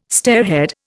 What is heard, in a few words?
"stairhead", Indian